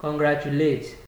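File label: "congratulate", Nigerian Pidgin, male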